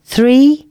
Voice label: announcements female